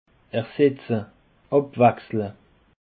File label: "ersetze", Alsace